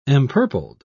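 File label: "empurpled", British English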